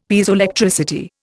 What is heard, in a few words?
"Piezoelectricity", Indian; Dictionary